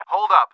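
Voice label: hold up, English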